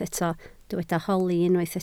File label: "holi", Welsh